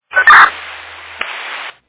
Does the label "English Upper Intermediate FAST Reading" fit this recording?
No